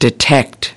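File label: "detect" US English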